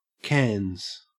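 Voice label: "cans" English, Australian accent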